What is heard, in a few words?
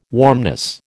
"warmness", American